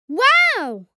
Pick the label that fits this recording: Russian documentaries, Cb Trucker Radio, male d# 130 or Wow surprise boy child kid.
Wow surprise boy child kid